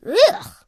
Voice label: Boy Neg; Food Truck Game